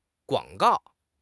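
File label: "guang3 gao4", Mandarin Chinese; HSK; HSK4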